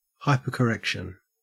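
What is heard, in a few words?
"hypercorrection", Australian English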